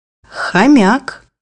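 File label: "hamster", Russian; bukvar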